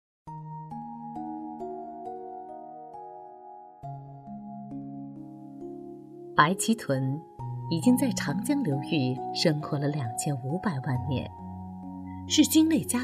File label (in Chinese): 女 纪录片 柔和解说